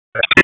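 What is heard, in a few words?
EMS Dispatch; wake; p25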